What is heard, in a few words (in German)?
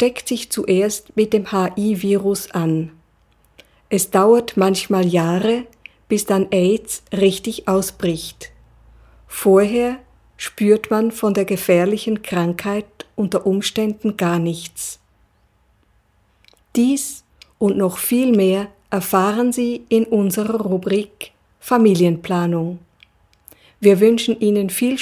deutsch